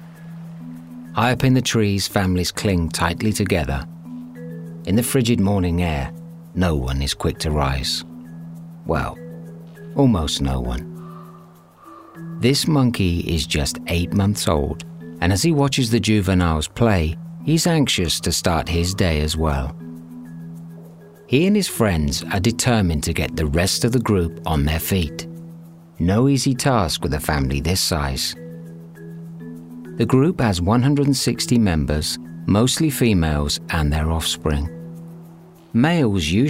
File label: Documentary Snow Monkeys